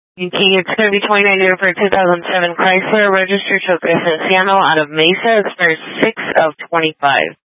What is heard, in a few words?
Sheriffs Office, scanner